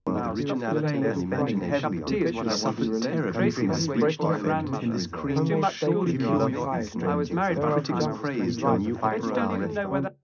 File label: male mix; SiSEC11; underdetermined